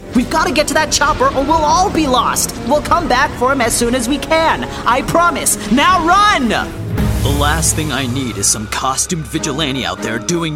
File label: Animation